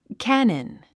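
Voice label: "cannon", English; 発音 kǽnən キャノン; 意味 大砲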